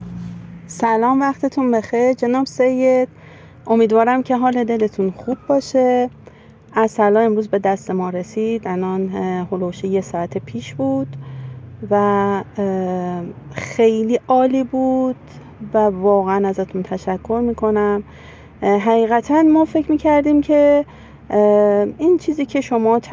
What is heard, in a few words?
voice msg